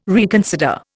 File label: "reconsider", Indian